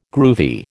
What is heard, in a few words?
"groovy", American